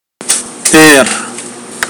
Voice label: "bear", Pohnpeian